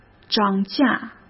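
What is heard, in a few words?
"涨价", Mandarin Chinese; hsk; chinese-flashcards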